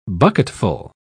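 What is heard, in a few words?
"bucketful", English, British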